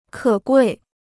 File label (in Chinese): "可贵", Chinese